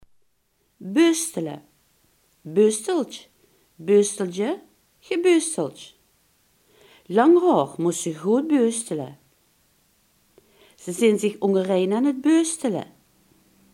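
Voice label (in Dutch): "borstelen" Limburgish dialect word; borstelen, beustele (beusteltj, beusteldje, gebeusteldj)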